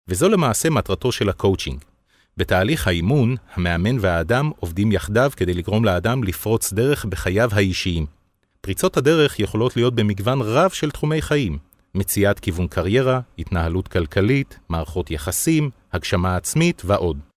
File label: male hebrew voice over talent narrative